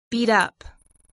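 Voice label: "beat-up", English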